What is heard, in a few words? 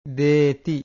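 "deti", Pali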